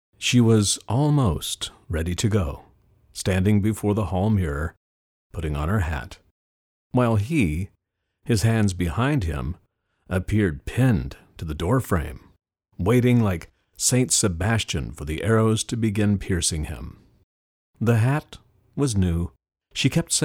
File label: Audio Books